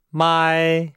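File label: "mai", Chinese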